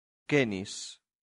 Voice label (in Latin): "cenis", latin; santillana